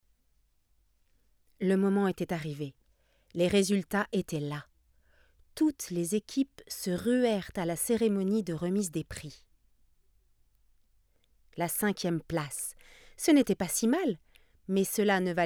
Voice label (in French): robots fr; marmite